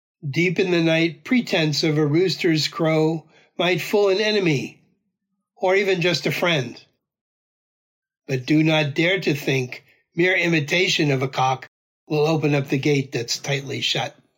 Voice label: Poem English; one-hundred-poems-from-old-japan